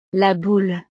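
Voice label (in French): "la boule", French; navidad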